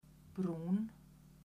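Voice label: "brúnn", Icelandic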